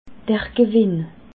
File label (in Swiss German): "gewinn", alsace